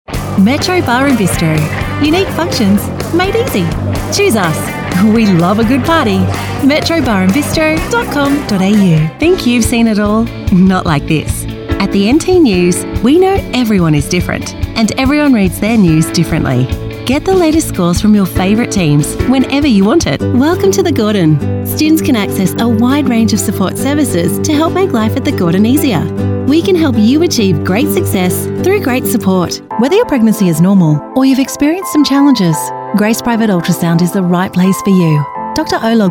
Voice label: female talent retail